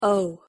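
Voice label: "o", English; pronunciation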